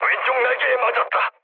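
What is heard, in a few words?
Korean left wing mood low; War Thunder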